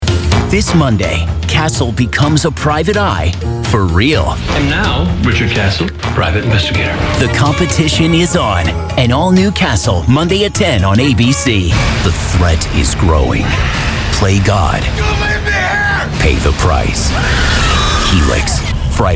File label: Drama Promo